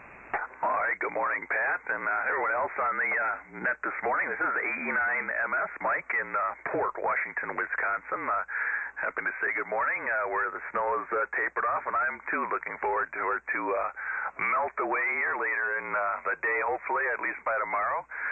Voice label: Collins 32S-1 75S-1 D-104 Mic & L4-B Amp; Port Washington,Wi.